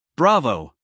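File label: "Bravo", American English guy; sounds-of-american-english; everyone-can-use-english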